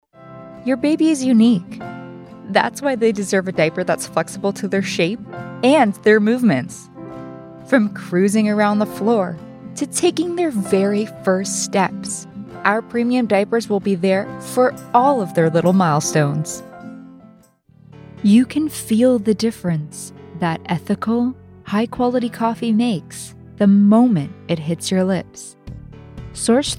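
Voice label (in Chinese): 外籍女声 母婴广告 美式英语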